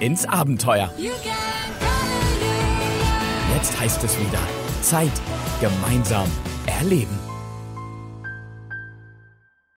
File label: GERMAN Virtual Line App; Europa-Park